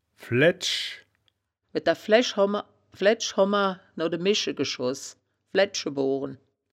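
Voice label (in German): "flaeddsch", wittlicher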